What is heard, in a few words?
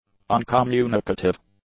"uncommunicative", English; adjectives